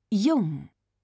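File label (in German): "jung", German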